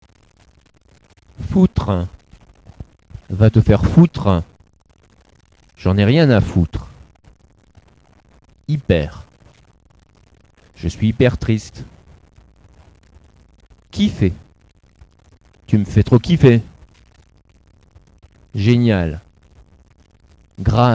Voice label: French Slam